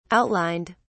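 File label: "outlined", British English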